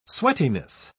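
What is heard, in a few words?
"sweatiness", British English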